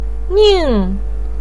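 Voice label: "ning4", Mandarin pinyin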